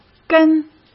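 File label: "跟" Mandarin Chinese; chinese-flashcards; hsk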